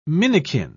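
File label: "minikin", British English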